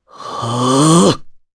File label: Casting, jp; voices; heroes; Pavel; kingsraid